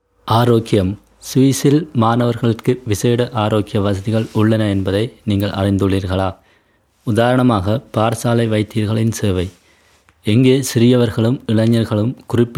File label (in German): tamil